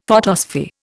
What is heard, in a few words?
"photosphere", Indian; Dictionary